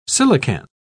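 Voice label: "coelacanth", English, British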